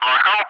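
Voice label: "Moscow", English, ATC callsign; DCS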